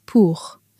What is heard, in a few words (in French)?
"pour", French